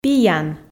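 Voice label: "пиян", Komi, female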